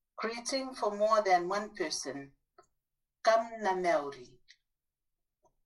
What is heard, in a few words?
Greeting for more than one person, Kiribati